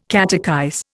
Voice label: "catechize", Indian

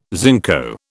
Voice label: "zinco", British

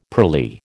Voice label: "pearly", American

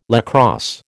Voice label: "lacrosse", American

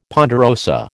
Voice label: "ponderosa", American

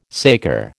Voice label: "saker", American

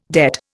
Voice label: "debt", Indian

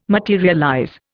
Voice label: "materialize", Indian

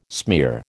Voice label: "smear", American

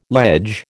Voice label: "ledge", American

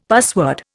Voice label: "password", Indian; Dictionary

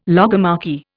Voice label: "logomachy", Indian

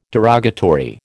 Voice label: "derogatory", American

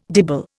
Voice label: "dibble", Indian

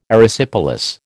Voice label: "Erysipelas", American

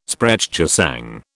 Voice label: "Sprechgesang", British

